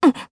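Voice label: voice Sur1, voices heroes, Japanese; Tanya; kingsraid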